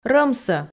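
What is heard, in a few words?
"рӧмсӧ" Komi, female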